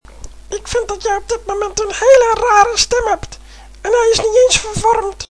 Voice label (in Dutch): piep tekenfilm